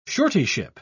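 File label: "suretyship", British English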